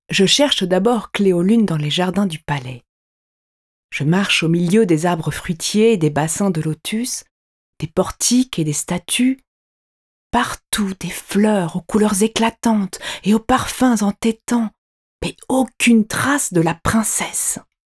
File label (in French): Conte enfants